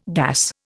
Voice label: "gas", Indian

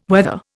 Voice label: "weather", Indian

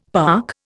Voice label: "park", Indian; Dictionary

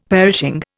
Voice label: "perishing", Indian; Dictionary

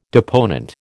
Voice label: "deponent", American

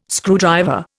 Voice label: "screwdriver", Indian

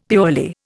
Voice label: "purely", Indian; Dictionary

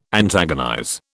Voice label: "antagonize", British

